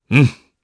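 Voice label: Vox Japanese; Clause; kingsraid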